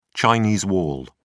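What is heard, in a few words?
"chinese wall", English, British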